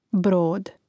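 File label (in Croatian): "brod", Hrvatski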